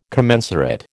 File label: "commensurate", American